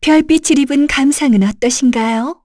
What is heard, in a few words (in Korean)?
Vox Skill, kr; Yuria; kings raid